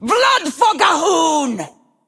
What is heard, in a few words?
Female Blood Troll Attack